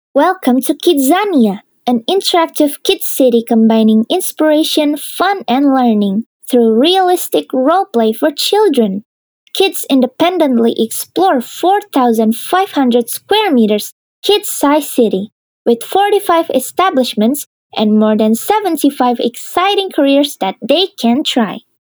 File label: CASUAL ENGLISH, cheerful and brings positive vibes